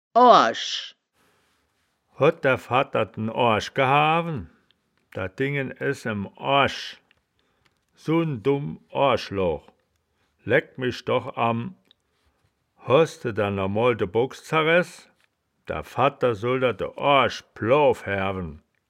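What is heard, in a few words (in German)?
"oarsch", Wittlicher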